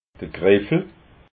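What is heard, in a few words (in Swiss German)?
"griffel", Alsatian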